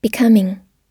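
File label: "becoming", American English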